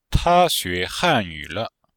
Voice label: Phrases types, chinois; Cours de Chinois; séquence 6

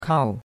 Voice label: "kao4", chinois; Cours-de-Chinois

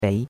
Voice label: "bei2", chinois; Cours-de-Chinois

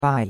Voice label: "bai4", chinois; Cours-de-Chinois

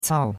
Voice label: "cao4", chinois; Cours-de-Chinois

